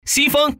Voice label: common man